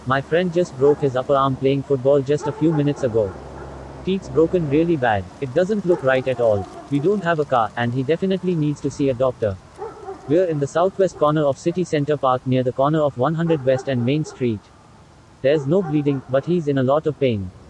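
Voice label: accents background en-IN Standard B M broken arm, Indian English, male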